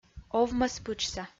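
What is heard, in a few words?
"овмӧспытшса", Komi, female; kpv; audio dict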